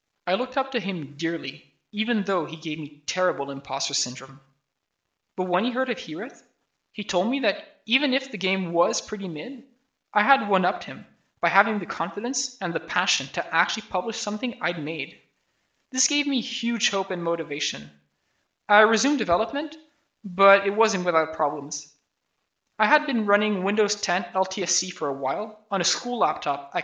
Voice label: voice, narration